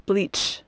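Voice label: "bleach", English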